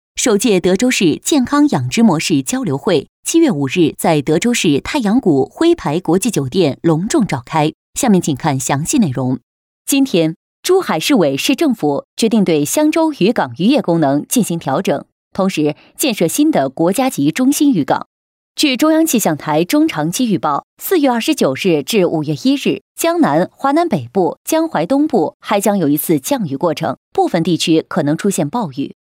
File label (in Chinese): 女 新闻 传统正式; 珠海